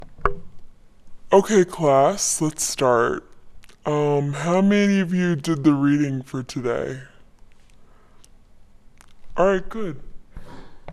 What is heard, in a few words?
Teacher Intro